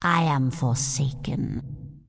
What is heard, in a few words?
Undead Female Magic NPC Greeting